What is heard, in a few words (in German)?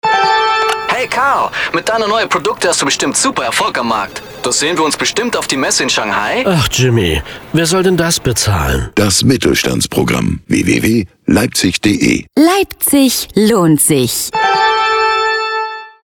funkspot; reichelt